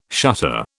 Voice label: "shutter", British